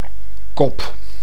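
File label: "kop", Dutch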